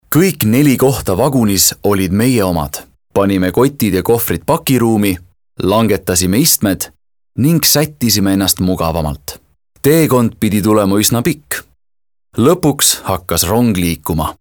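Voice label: Estonian male example Casual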